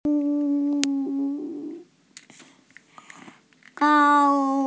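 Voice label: "gao1", Mandarin Chinese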